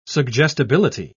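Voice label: "suggestibility", British English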